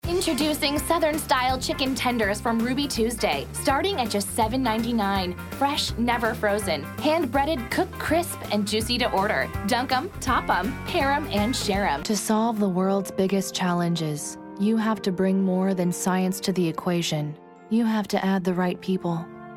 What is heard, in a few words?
Commercial